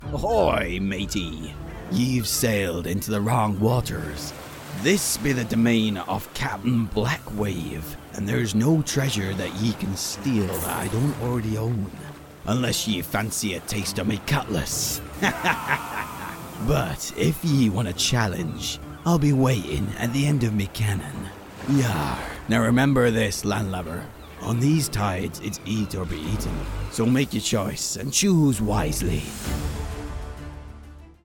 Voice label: Pirate

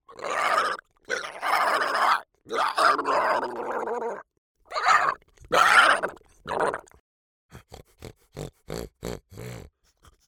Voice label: Creature

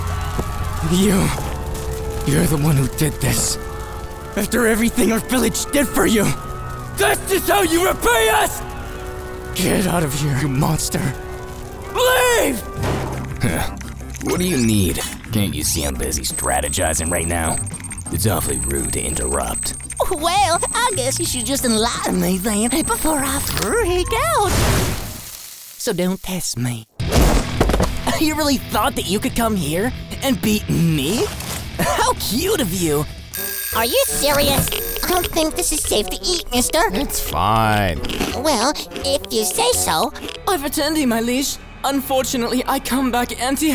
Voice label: Character

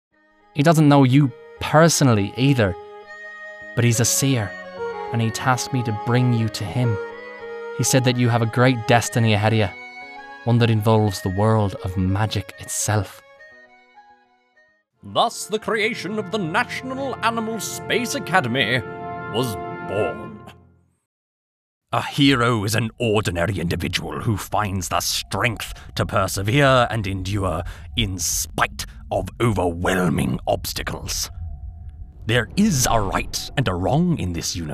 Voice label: Animation voiceover

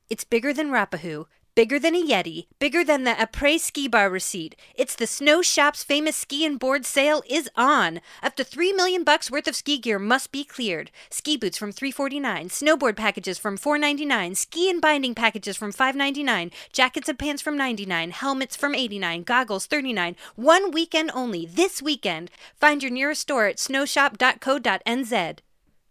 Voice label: Retail Hard Sell, en-GB

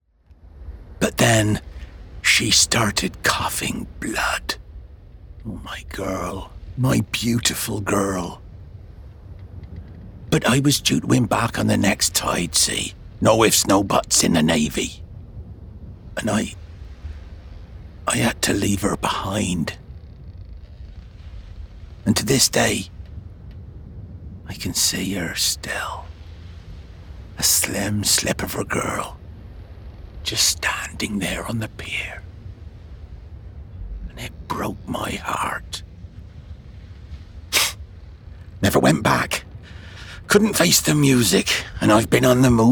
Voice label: Pirate

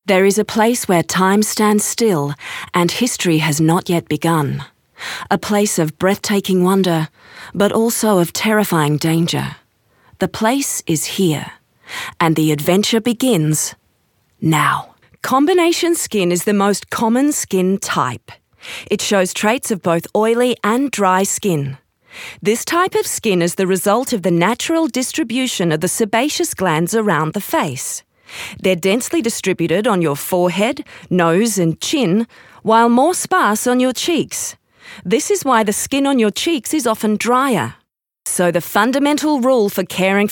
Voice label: australian accent

